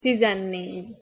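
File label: "tizennegy", Hungarian pronunciation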